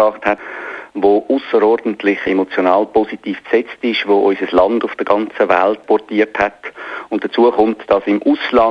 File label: news meldung swiss